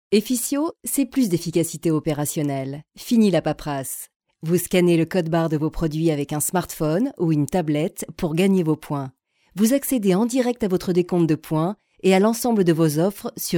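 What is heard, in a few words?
French, France, female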